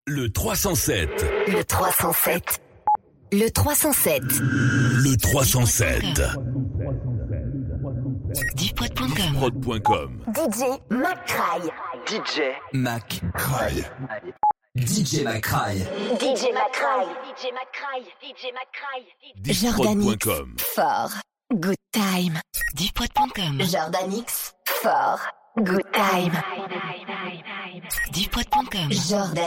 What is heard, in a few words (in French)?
Drops A cappellas, habillage